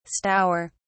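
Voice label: "stour", British English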